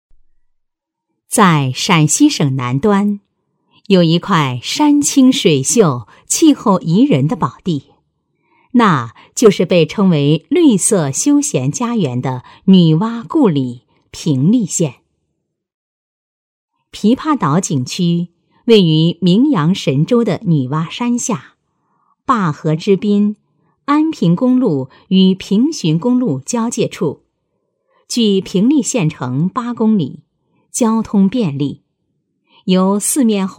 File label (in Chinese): 女 解说; 琵琶岛成品